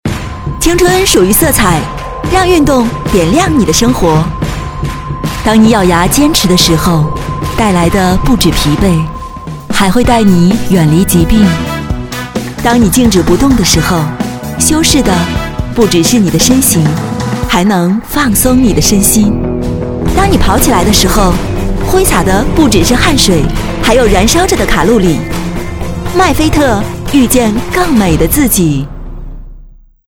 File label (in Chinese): 女 TVC 广告; 迈菲特